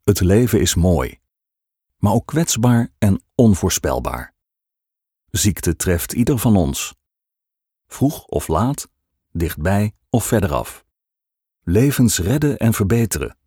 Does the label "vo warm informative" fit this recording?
Yes